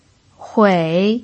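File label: "hui" third tone, pinyin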